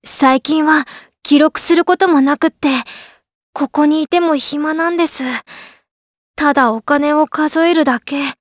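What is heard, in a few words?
voice dia, voices heroes, Japanese; Leo; kingsraid; jp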